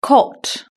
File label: "cot", British English